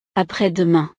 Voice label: "après-demain", francés